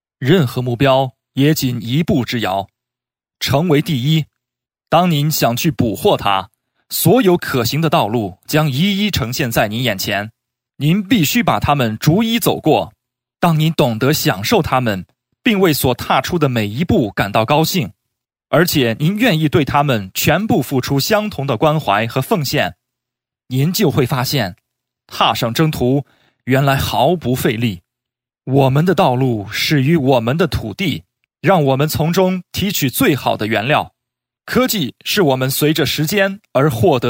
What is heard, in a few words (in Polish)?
Chinski